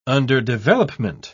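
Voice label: "underdevelopment", British English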